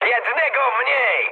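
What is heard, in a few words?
player killed enemy mood high, speech Polish; War Thunder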